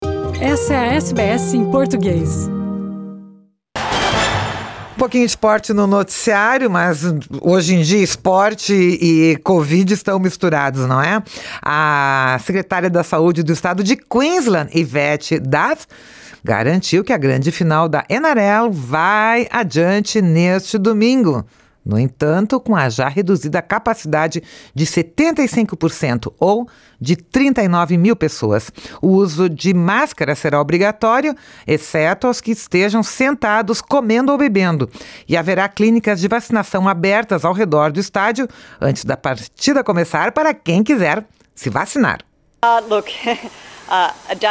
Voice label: portuguese; Final da NRL acontece em Brisbane neste domingo; SBS Portuguese